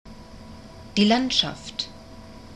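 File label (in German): "die landschaft", German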